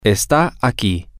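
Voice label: it is here, Spanish, male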